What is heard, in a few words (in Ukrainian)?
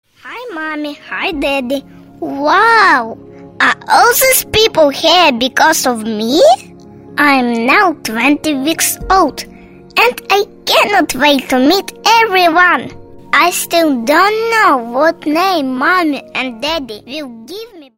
anglijskoyu; avtorska pisnya